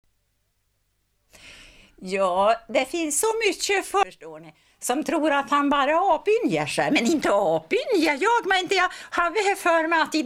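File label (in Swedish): Hille Gästrikland, Svenska sagor, dialekter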